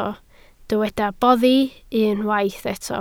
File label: "boddi", Welsh